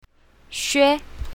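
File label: "xue1", pinyin, south, female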